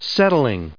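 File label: "settling", English, us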